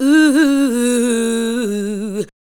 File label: ADLIBS 90; Ueberschall; Jam Box